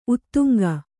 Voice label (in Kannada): "ಉದಂಕ", kannada